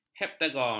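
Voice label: "heptagon", Malay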